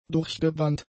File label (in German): "durchgebrannt", German; adjectives